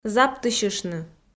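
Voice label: "заптысьыштны", Komi, female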